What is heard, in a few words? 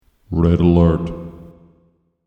Red Alert Male Voice; Recorded Voice Sound Effects; human voice sound effect